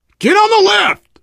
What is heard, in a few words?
world hospital, player survivor voice biker